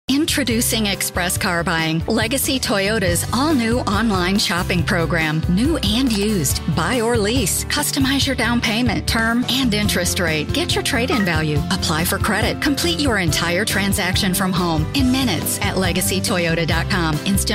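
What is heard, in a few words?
voice over demos; Legacy Toyota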